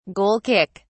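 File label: "goal kick", British English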